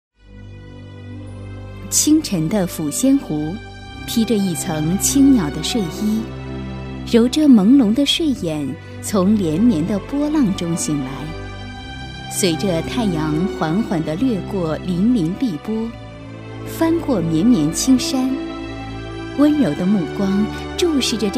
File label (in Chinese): 女 年轻甜美, 专题 科教片 烟草